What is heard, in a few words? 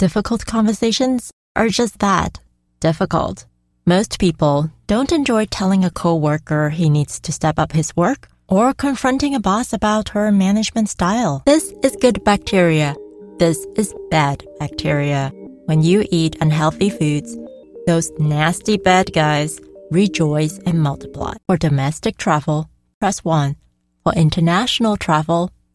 Corporate Narration Elearning VO